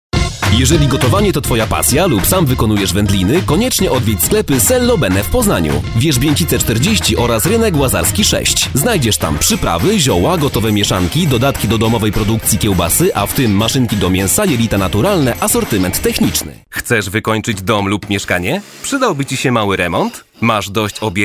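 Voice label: Polish male commercials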